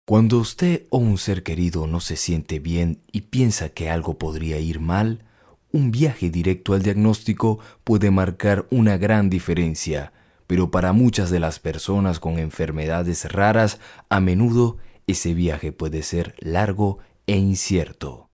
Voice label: locutor venezuela venezuelan voiceover; locutor venezolano

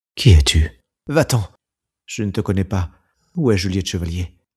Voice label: locutor frances doblaje french dubbing

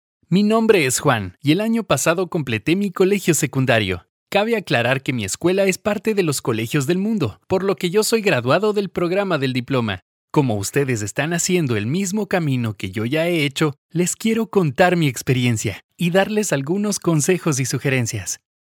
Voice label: locutor ecuador ecuadorian voiceover; locutor de Ecuador

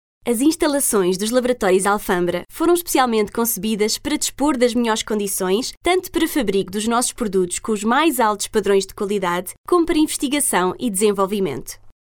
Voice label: portuguese voiceover locutora portuguesa

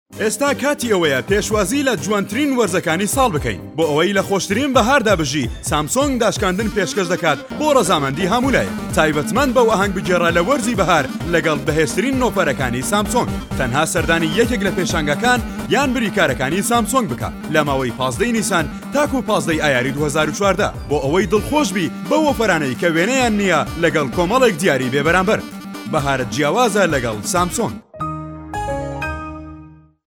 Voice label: male talent voiceover kurdish kurdo sorani

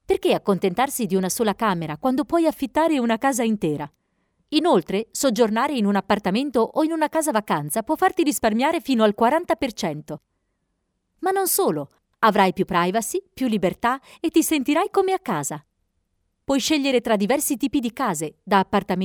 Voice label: locutora italiana italian voiceover; dub voice actress

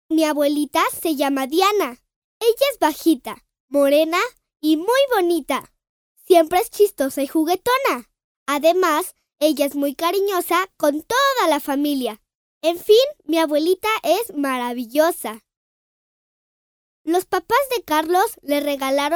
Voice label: locutora nina mexican child voiceover; locutora niña de México

